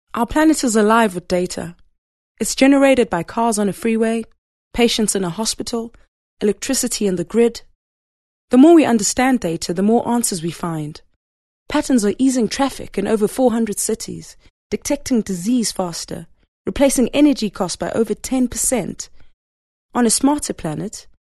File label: English corporate